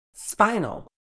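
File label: "spinal", British English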